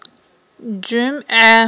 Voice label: "джуьмIа", Aghul